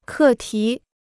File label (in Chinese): "课题", Chinese